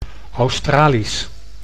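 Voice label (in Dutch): "Australisch", Dutch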